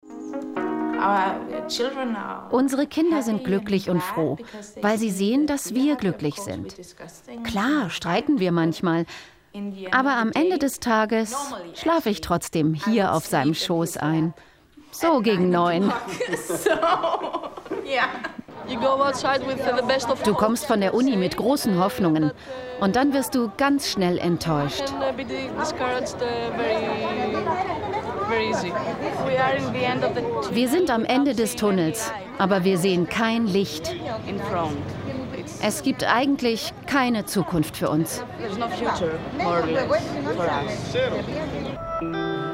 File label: over voice